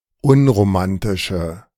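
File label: "unromantische", German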